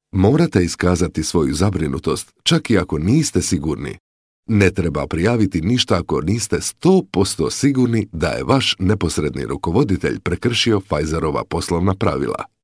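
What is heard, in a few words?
Croatian e-learning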